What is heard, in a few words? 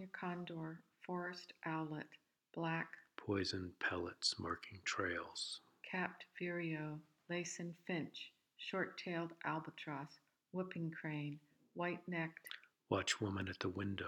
Two Voices; Field Notes for Two Voices